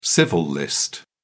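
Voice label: "civil list", British English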